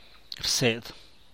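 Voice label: "rsiac", San Mateo Macuilxochitl Zapotec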